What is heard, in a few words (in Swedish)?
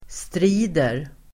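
"strider", Swedish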